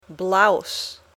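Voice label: "blouse", English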